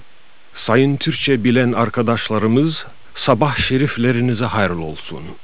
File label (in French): turc; voyager